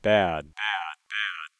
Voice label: bad filtered nasal sounding; Filtering out low frequencies